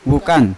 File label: "vukan" knee, Yawa